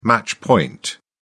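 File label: "match point", British English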